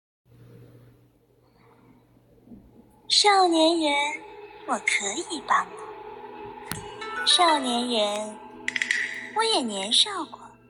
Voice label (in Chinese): 动画配音; 宝青坊主